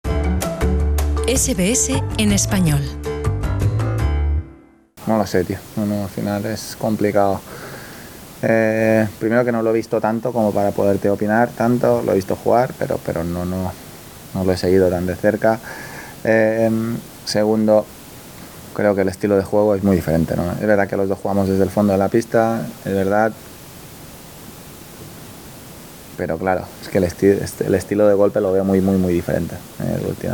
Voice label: spanish; SBS Spanish; Rafa Nadal dice que el estilo de golpe de De Miñaur es diferente

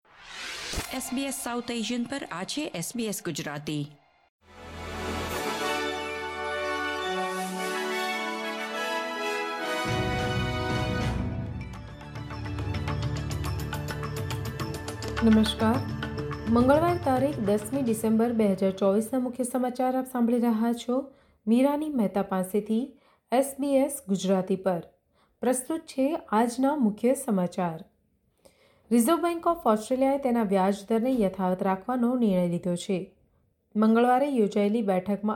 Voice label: gujarati news bulletin; ૧૦ ડિસેમ્બર ૨୦૨૪: ઓસ્ટ્રેલિયાની મુખ્ય અપડેટ; SBS Gujarati

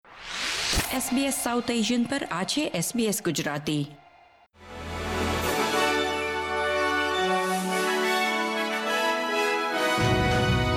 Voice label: gujarati news bulletin; SBS Gujarati Australian update: 22 July 2025

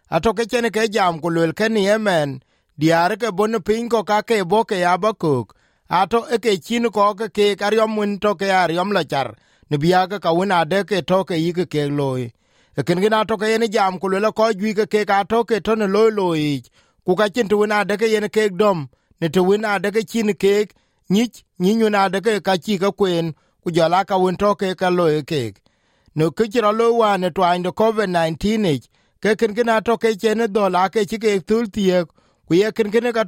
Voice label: dinka; Diäär ke bɔ̈ në kuɛɛt ke abaköök atɔ̈ keke ye dɔ̈m në wëu diääk në ɣän ke luɔi; SBS Dinka

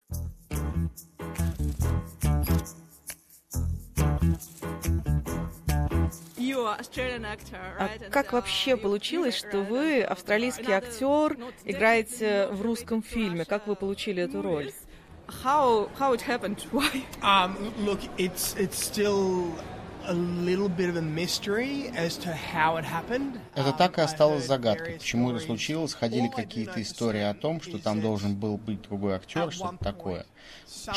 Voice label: russian; The beauty of the Land with an unfamiliar voice over; SBS Russian; Russian Resurrection Film festival

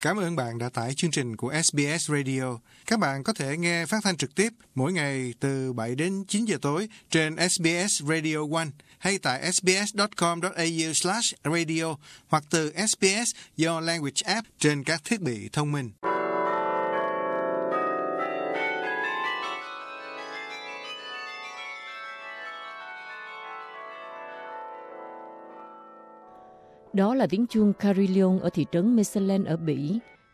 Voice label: vietnamese; the bells of mechelen

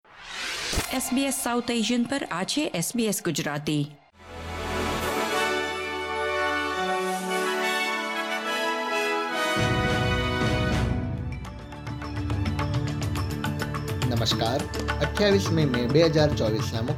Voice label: gujarati newsflash; SBS Gujarati News Bulletin 28 May 2024

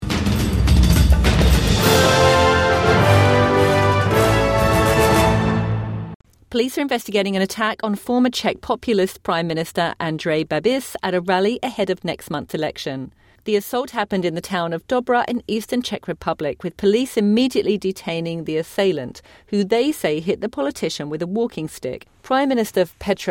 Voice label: english; former czech prime minister attacked ahead of election

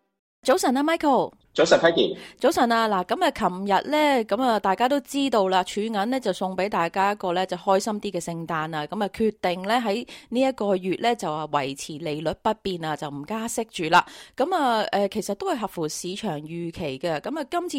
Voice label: cantonese; 【讓供樓人仕賀聖誕】加息壓通脹見效？儲銀12月停加息背後原因; SBS Chinese; global finance

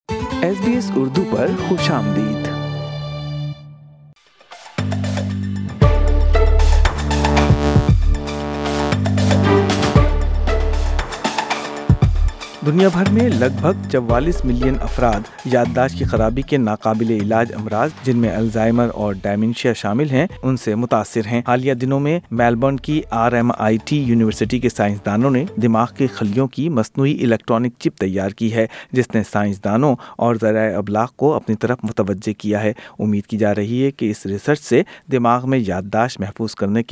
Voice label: urdu; سائنسی تحقیق ۔ نئی الیکٹرانک چِپ کے ذریعے یادداشت کو محفوظ کیا جاسکے گا; SBS Urdu

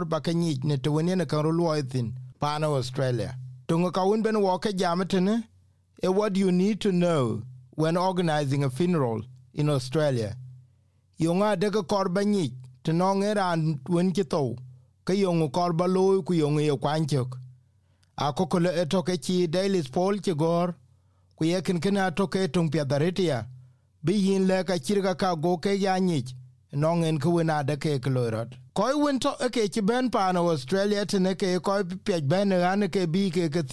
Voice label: dinka; Settlement Guide: How is a funeral organised in Australia?; SBS Dinka

